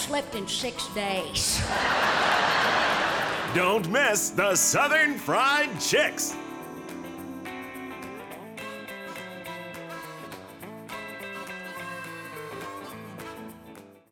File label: 30 Second Commercial 3 Person with vo